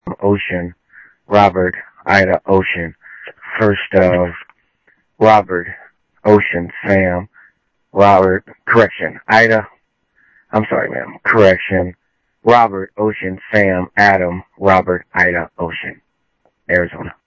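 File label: Sheriff Lake Patrol, scanner audio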